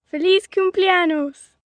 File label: Spanish Birthday, 1 girl, 10 yrs., Spanish, (Happy Birthday), human voice; Holiday; Occasions, Foreign